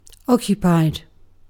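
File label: "occupied", British English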